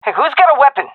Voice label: request weapon, vo fem; agents; Source Model Packs; Counter Strike Model Pack; CS2